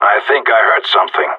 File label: heard something, radio; GSG9